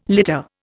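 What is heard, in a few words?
"litter", Indian English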